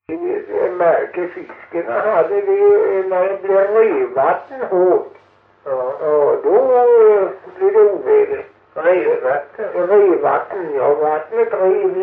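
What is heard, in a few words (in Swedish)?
Hulterstad Öland, dialekter; Folkminnen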